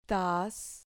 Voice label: "tas", Spanish syllable

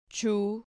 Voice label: "chu", Spanish syllable

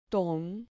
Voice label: "ton", Spanish syllable

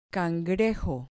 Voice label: "cangrejo", Spanish

